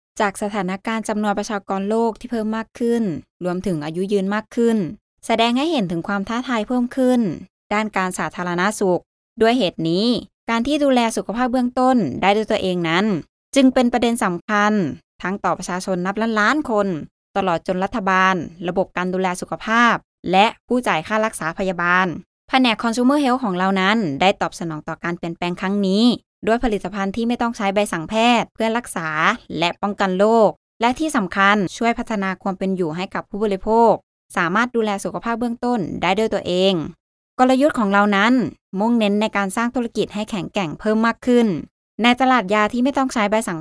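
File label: TH Corporate, Thai; GoLocalise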